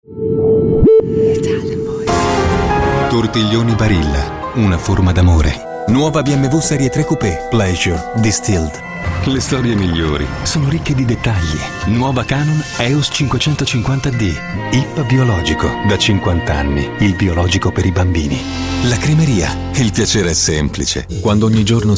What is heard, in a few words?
Warm Smooth, Male Voices, ITALIAN VOICES